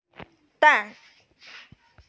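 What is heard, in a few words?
"zhan4", Mandarin Chinese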